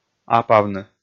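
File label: "апавны", Komi-Zyrian, male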